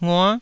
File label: "ngua9", taiwanese syllables